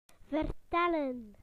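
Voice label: "to tell", British English; PictureDictionary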